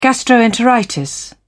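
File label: "gastroenteritis", British English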